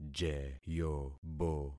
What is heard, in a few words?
"jeyobo", concat syllables; Affixing experiment